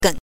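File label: "gen", Mandarin Chinese pinyin, neutral tone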